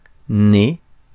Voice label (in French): "nez", francais; Mots francais